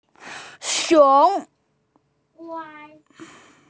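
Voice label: "xiong2" Chinese, female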